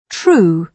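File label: "true", British English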